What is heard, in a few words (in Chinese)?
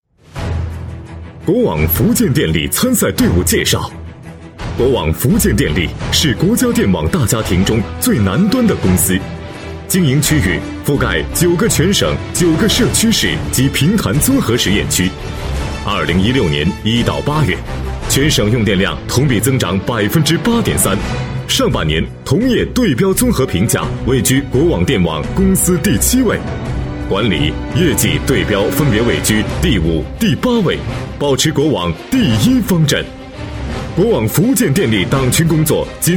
男, 企业工作纪实; 国网福建管培中心创建全国文明单位工作纪实